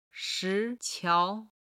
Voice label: "shi2 qiao2", Chinese